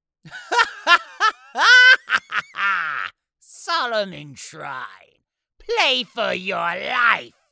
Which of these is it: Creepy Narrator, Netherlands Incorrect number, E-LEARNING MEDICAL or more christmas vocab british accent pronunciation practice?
Creepy Narrator